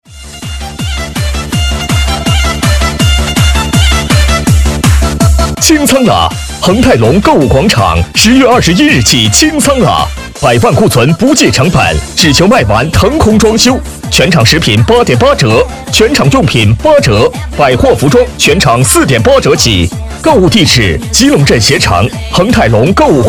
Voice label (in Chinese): 男 促销 广告清仓